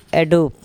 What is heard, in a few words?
unripe, eDob